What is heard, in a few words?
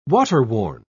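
"waterworn", British English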